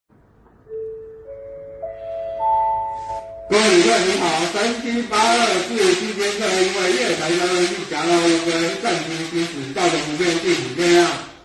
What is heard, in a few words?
train announcement